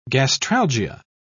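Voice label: "gastralgia", British English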